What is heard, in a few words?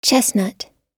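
"chestnut" US English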